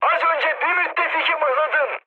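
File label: Turkish player killed ally, mood high, speech; War Thunder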